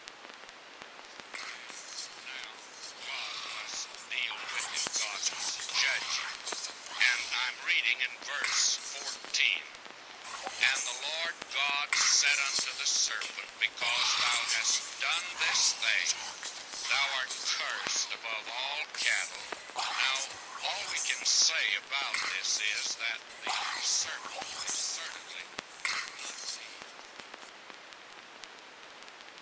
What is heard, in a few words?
radio recording filtered